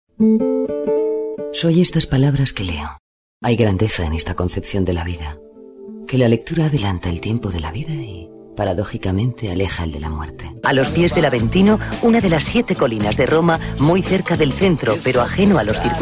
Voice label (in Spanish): narración